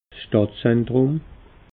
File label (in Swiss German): "stadtzentrum", alsace